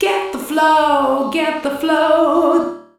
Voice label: VOICE FX, Drum Bass, 160; eJay